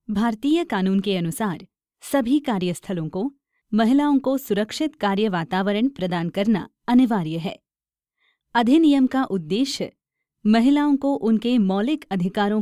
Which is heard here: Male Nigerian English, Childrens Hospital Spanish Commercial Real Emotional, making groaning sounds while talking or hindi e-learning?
hindi e-learning